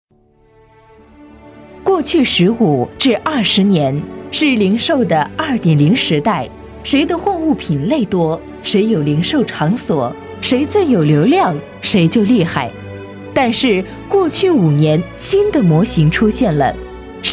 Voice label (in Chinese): 宣传企业 大气